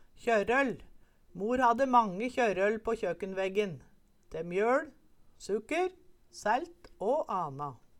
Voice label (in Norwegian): "kjørøll", Numedalsmål